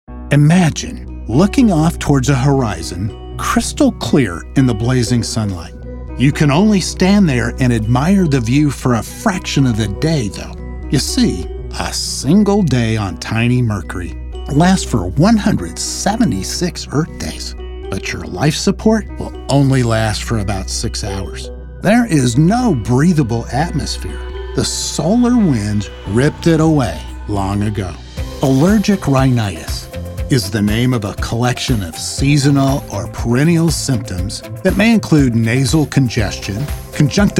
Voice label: Narrative

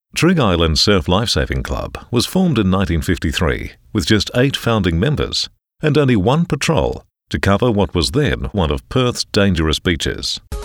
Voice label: Documentary Reads

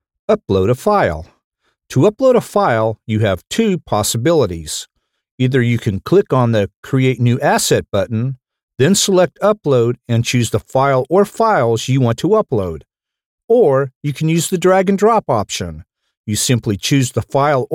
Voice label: Elearning Tutorial

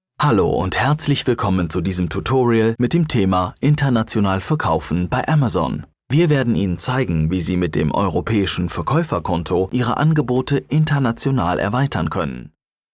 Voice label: Tutorial; Amazon

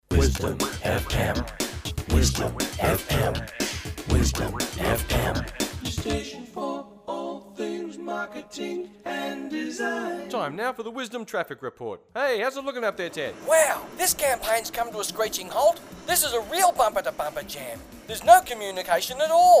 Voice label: Produced Radio Advert

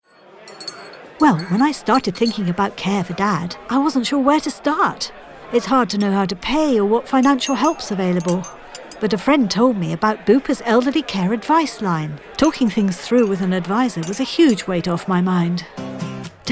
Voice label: Commercial Radio

